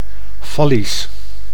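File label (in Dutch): "fallisch", Dutch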